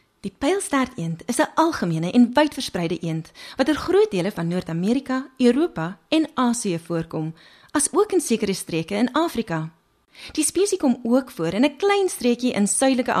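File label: Afrikaans, male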